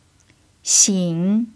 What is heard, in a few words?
"xin3", Mandarin pinyin